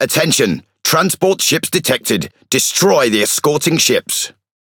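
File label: MESSAGE FOUND ENEMY CONVOY, British English; PVE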